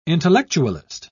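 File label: "intellectualist", British English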